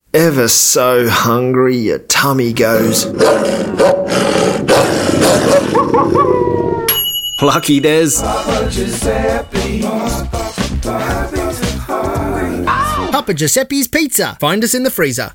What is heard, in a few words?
Howling Hungries radio